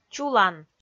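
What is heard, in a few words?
"чулан", Komi-Zyrian, female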